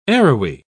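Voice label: "arrowy", British English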